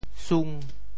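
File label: "sung5", Teochew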